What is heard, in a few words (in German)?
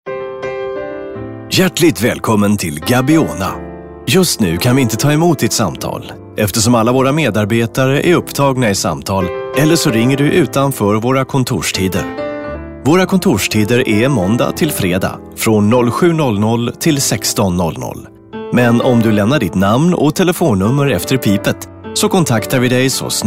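telefonansagen sprecher Swedish; Native Speaker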